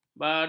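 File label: "barus", Malay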